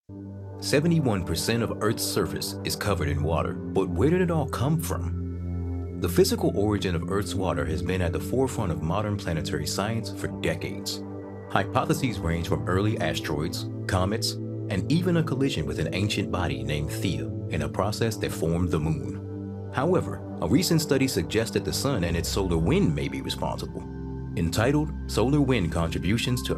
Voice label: english american documentaries